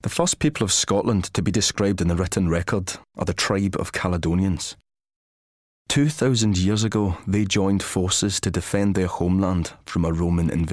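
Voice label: Audiobook, Mid-to-deep tone. Reassuring and rich Scots voice.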